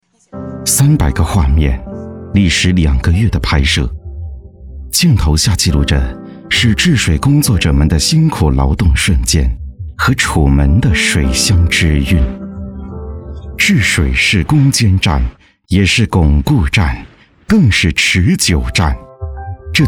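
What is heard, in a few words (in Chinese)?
男【专题】; 江苏治水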